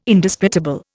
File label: "Indisputable", Indian